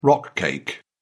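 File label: "rock cake", British English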